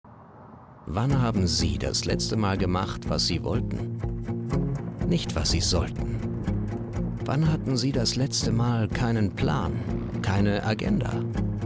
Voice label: Spot; Mercedes MP3

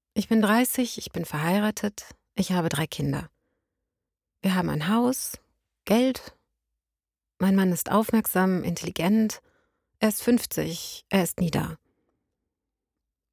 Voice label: Narration

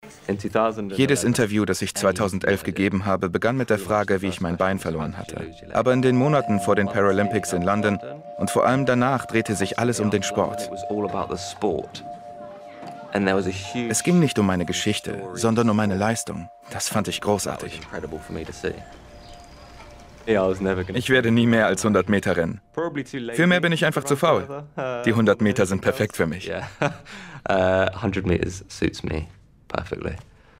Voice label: Voice Over; Phoenix aus der Asche

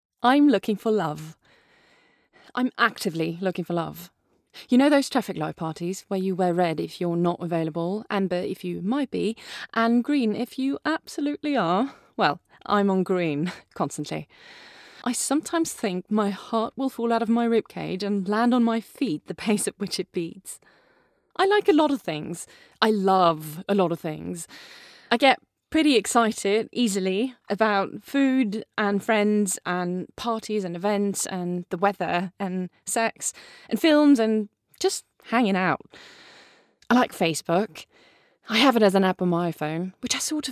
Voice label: Drama English; Juniper

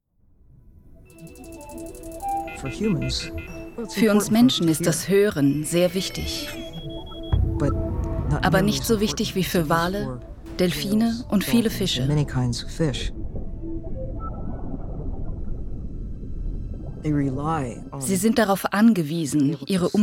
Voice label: VoiceOver

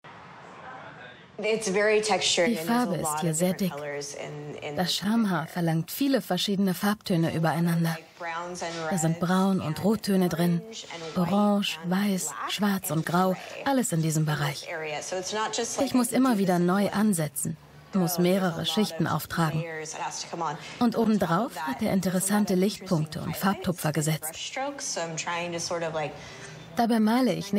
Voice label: Voice Over; Der zärtliche Blick; Die Akte Modigliani

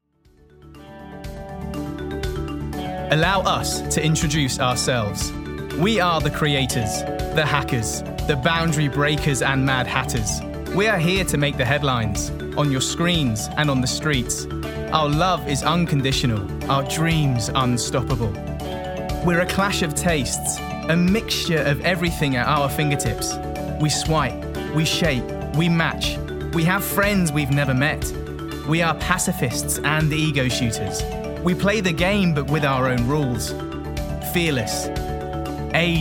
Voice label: ADVERT

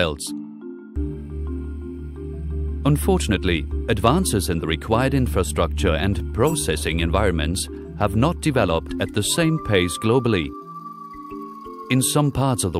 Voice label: voiceover blood seperation system; 3m™; indeecom film prod.; advertunes gbr; LOFT VOICES

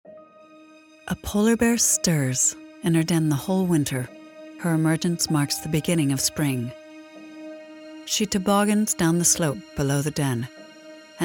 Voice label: Docu; LOFT VOICES

